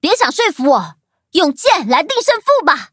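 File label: level up, Traditional Chinese; cookie run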